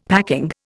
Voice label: "packing", Indian; Dictionary